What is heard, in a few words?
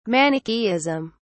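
"manichaeism", British English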